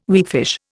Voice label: "weakfish", Indian

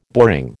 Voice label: "boring", American

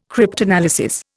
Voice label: "cryptanalysis", Indian

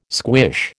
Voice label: "squish", American

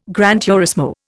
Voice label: "gran turismo", Indian

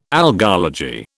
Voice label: "algology", British

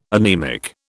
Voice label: "anaemic", British

